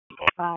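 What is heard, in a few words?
Raleigh Police Southeast District Dispatch, p25; wake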